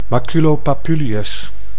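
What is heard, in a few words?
"maculopapuleus", Dutch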